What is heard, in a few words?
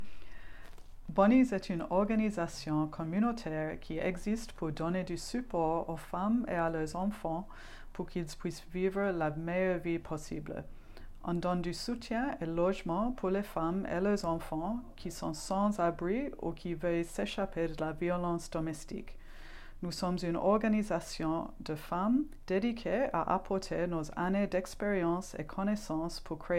French